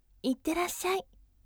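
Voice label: "itterassyai", gentle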